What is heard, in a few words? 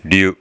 "ryu", hiragana